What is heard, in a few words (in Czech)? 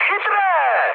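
Czech trick, mood high; speech; War Thunder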